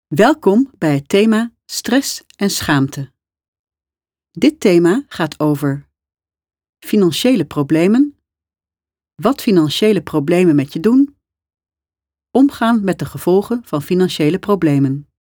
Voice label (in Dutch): e-learning voorbeeld